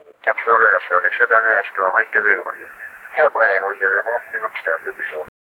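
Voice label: flight attendant speaking; human voice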